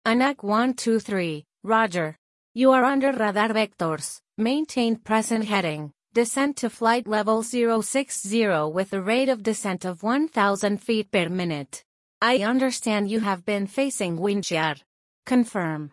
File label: ATC Belly landing